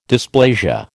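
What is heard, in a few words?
"dysplasia", American English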